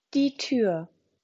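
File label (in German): "Tür", German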